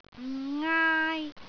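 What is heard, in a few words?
"ngaai1", Cantonese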